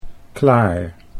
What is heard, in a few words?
"Claar", Dutch